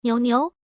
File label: female